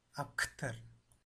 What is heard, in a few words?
"akhthar", Tamil